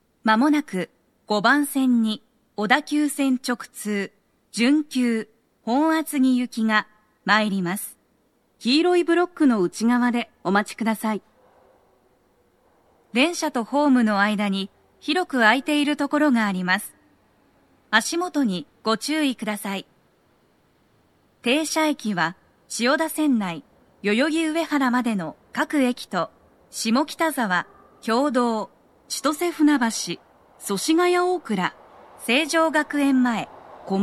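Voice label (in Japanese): 5番線 接近放送 女声, 大手町駅; 放送は「準急 本厚木行き」です。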